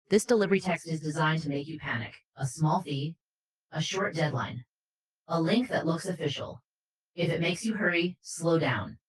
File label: friendly alert, voice; chatterbox